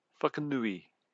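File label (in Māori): "whakanui", Māori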